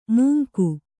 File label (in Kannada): "ಮೂಂಕು", Kannada